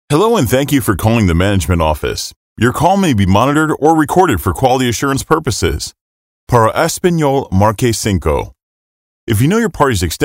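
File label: interactive voice response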